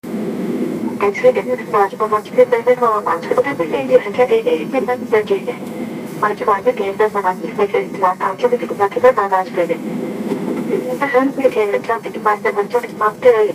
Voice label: old japanese cockpit voic, aviation